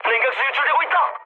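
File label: Korean damaged water mood med, speech; War Thunder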